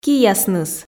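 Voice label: "киясныс", Komi, female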